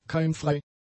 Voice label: "keimfrei", German; adjectives

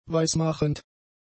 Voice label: "weismachend", German; adjectives

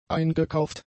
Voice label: "eingekauft", German; adjectives

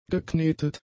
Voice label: "geknetet", German; adjectives

